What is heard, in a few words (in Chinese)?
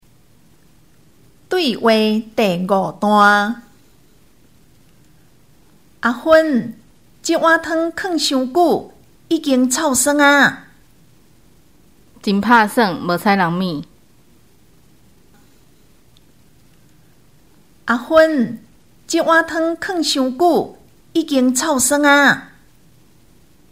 對話, 閩南語; 對話理解; 閩南語語言能力認證; 閩南語能力測驗題本